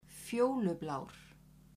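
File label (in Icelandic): "fjólublár", Icelandic, female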